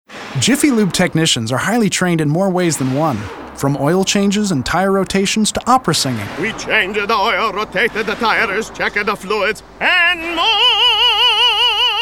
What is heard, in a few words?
commercial